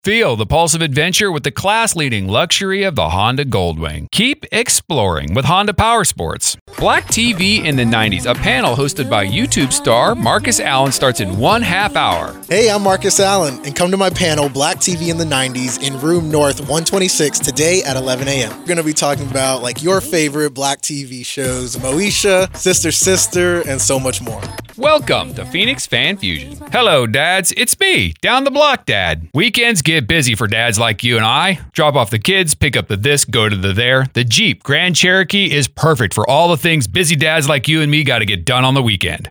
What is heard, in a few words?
Spots, voice over